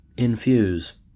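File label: "infuse", US English; pronunciations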